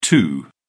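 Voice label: "too", British English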